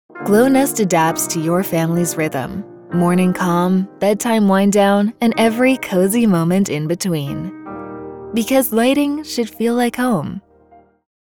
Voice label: Radio Commercials, Calm, Confident Product Read, female voice; Glownest